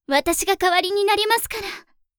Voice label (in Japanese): 私が代わりになりますから！, 母親; ハイグレボイス集